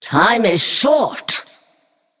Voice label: Female Naga; Time